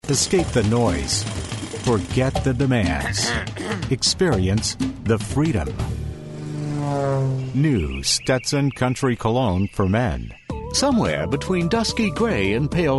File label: male US retail